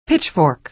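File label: "pitchfork", American English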